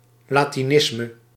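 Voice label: "latinisme", Dutch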